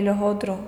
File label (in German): "los otros", Andalusien Sevilla